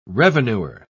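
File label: "revenuer", British English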